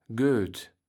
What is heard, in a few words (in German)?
"Goet", Platt